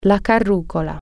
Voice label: pulley, italian